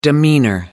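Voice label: "demeanor", British English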